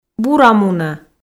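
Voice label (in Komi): бура мунӧ, Komi, female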